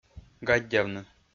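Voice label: "гаддявны", Komi, male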